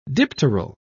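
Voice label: "dipteral", British English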